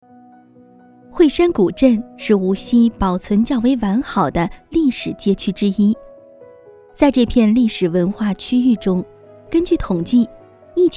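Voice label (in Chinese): 景区介绍清晰自然亲切; 惠山古镇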